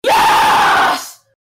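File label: "yes" isterich, hysterical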